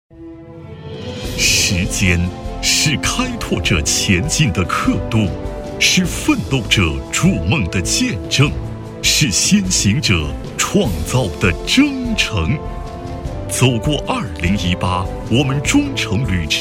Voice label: 男国 专题 企业 激情; 通州; 通州公司

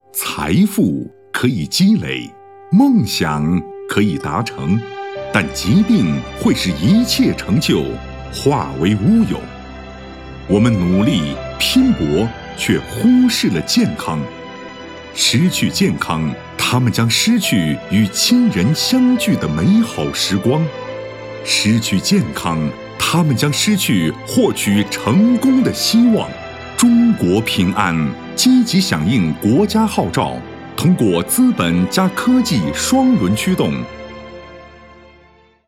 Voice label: 男国 专题 企业 磁性; 平安健康体检

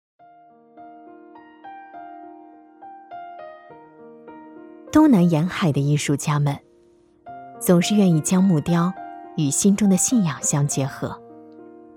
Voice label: 纪录片 人文历史 雕刻艺术

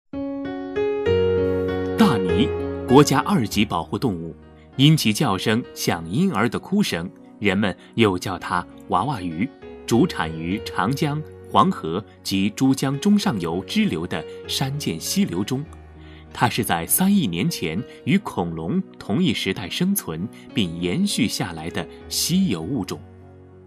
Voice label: 对播 课件--男女

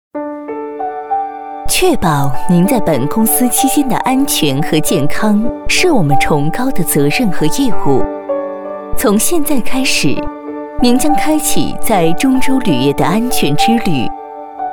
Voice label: 语音导游 安全须知

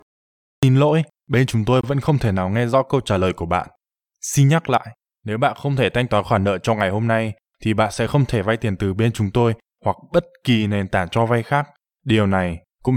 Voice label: 越南语男, 外语, 小语种

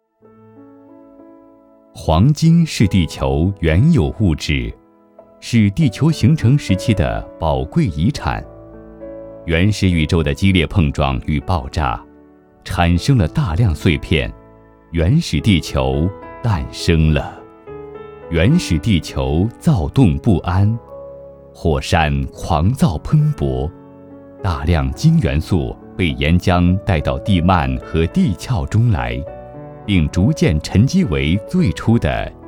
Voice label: 男国 纪录片 自然科教; 黄金科普

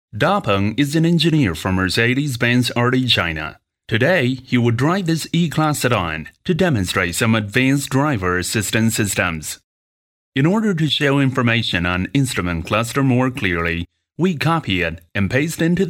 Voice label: 男英 外语 国内英语 课件奔驰汽车解说

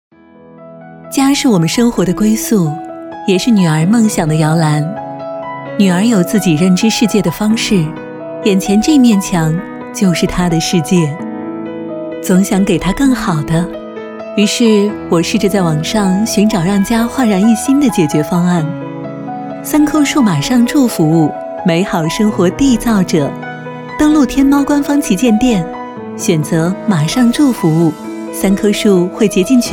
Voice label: 专题 企业 介绍 温情, 女国; 三棵树介绍

